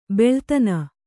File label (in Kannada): "ಬಲ್ಲತನ", Kannada